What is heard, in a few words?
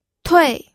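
"tui4", Mandarin Chinese, pinyin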